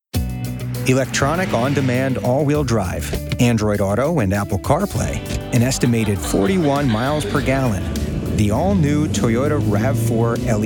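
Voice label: Commercial Automotive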